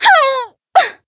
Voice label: Voice damaged